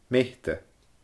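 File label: "meite", Scottish Gaelic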